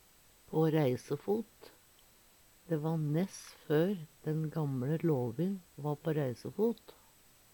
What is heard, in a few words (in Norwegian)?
pa reisefot, Numedalsmål; Uttrykk